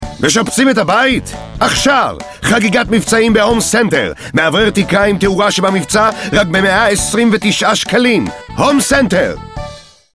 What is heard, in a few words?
Hard sale Commercial